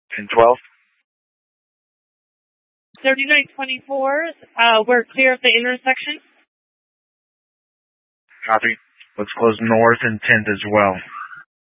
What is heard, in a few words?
Tactical Incidents, scanner